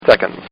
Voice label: Man Says Seconds; Talking; Voice